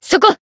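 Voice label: Voice attack, Japanese; felmina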